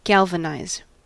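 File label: "galvanize", American English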